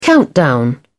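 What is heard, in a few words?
"countdown", British English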